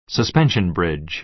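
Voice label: "suspension bridge", American English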